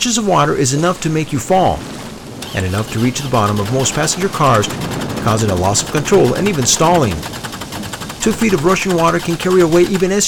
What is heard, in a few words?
ENGLISH FLOOD PSA